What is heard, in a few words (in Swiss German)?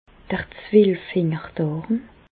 "zwelffingerdarm", Bas Rhin; medecine; duodenum